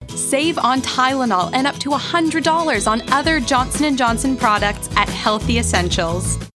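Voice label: American English, Commercial; GoLocalise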